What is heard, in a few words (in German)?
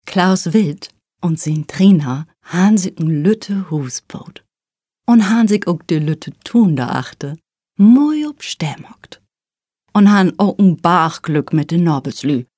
Plattdeutsch; GESANG/Klavier/Komposition